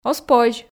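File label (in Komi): оз позь, Komi, female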